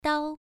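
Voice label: "dao" Mandarin, first tone, pronunciation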